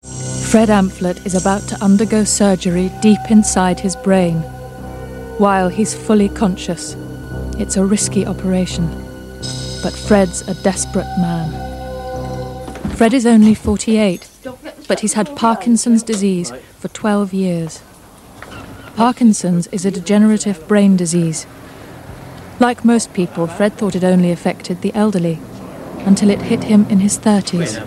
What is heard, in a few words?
Documentary